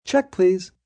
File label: Check Please, Restaurant, bar, male; Talking Sound Effects